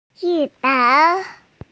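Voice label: "chi4bang3", Mandarin Chinese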